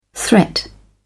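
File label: "threat", British English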